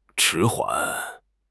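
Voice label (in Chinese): "迟缓", Mandarin Chinese; HSK6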